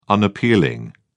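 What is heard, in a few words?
"unappealing", British English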